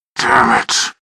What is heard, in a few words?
heavy pain; zero